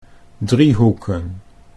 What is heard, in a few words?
"driehoeken", Dutch